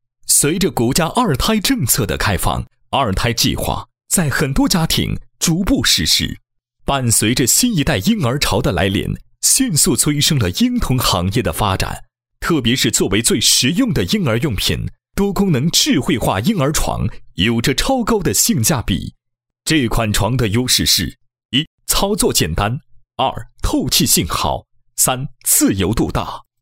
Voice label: 男 品牌宣传; 未来星 智能浮被床

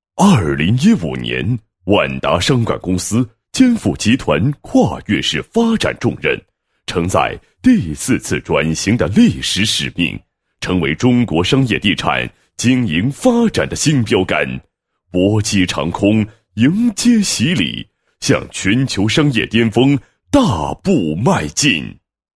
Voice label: 男 宣传广告 视频宣传片, 配音; 万达; 万达就是城市中心

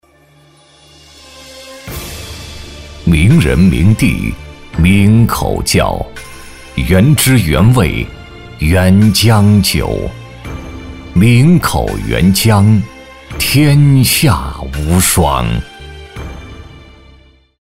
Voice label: 男100号-广告-酒品 大气悠扬, 国语男声配音

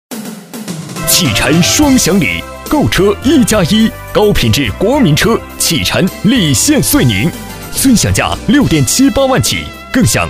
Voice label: 男 浑厚广告 4S店, 国语男声配音 磁性; 男98磁性专题

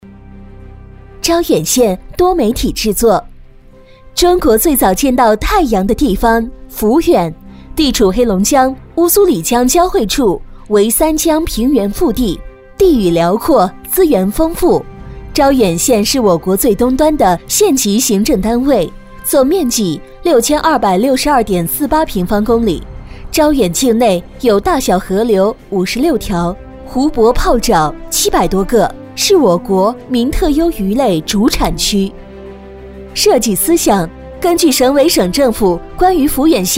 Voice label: 女 专题 城市宣传, 国语女声配音; 招远

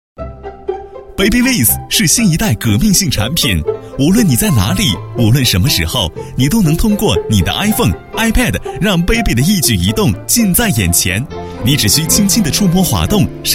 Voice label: 男 广告 年轻时尚, 国语男声配音; Babywith

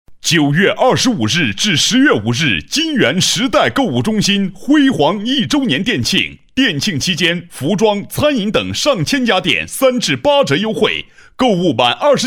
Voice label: 促销广告, 男; 新闻广告专题颁奖; 金源开业三个广告

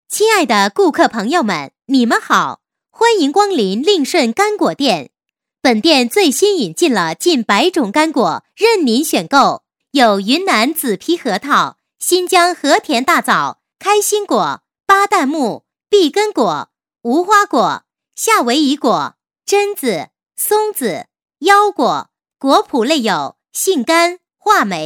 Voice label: 女 促销, 国语女声配音; 令顺干果店

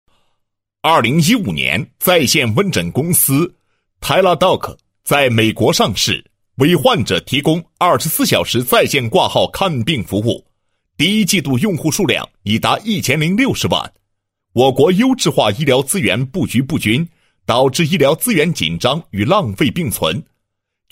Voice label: 男 医疗; 长城会第一会诊医疗平台介绍

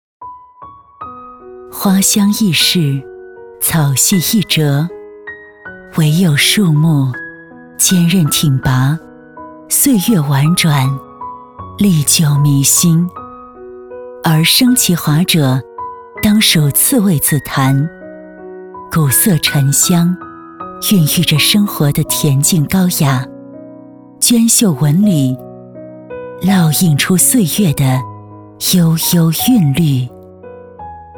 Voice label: 广告, 自然朴实; 红木家具

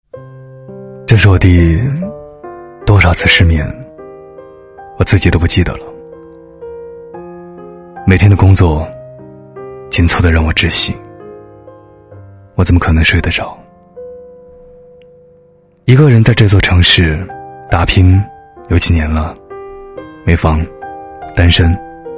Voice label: 男 电台感觉 失眠

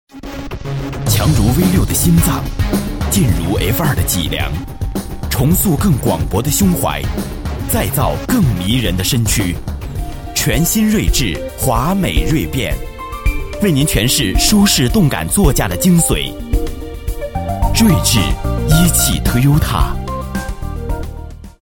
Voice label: 男 广告 高端时尚, 国语男声配音; 一汽TOYOTA

